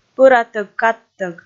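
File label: "пӧратӧг-кадтӧг", Komi, female; kpv audio dict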